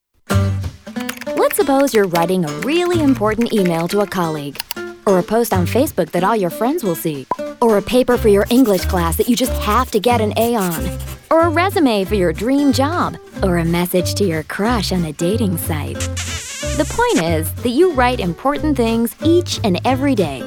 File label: US Corporate; Corporate Reel